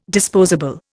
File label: "disposable", Indian